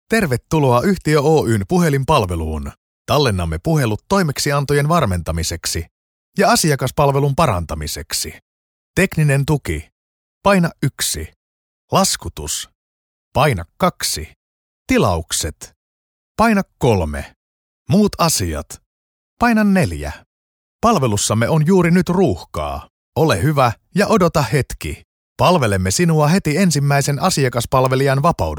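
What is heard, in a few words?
Finnish telephone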